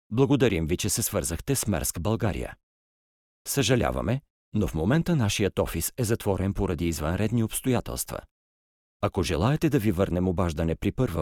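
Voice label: bulgarian male ivr; voice demos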